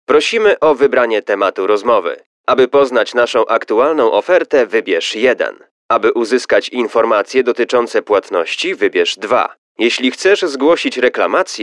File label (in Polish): ivr tematy rozmowy